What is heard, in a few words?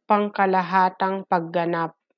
Tagalog